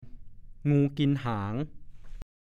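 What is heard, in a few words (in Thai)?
"งูกินหาง" ภาษาโคราช; การเล่นอย่างหนึ่ง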